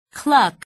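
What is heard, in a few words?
"cluck", English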